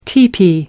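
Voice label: "tipi", British English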